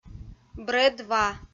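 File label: "брӧд ва", Komi-Zyrian, female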